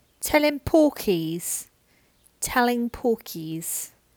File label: Cockney